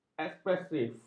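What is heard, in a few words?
"ekspresif", Malay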